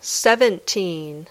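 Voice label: "seventeen", English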